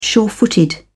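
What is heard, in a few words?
"surefooted", British English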